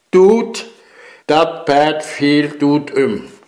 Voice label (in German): "duut" im Satz; heimatfreunde weibern